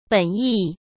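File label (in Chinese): "本意", Mandarin Chinese; chinese flashcards; hsk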